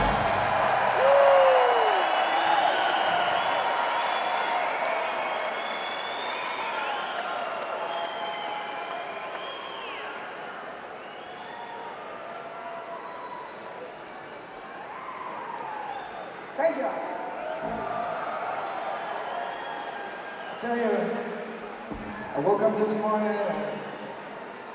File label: Chatter